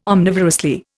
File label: "omnivorously", Indian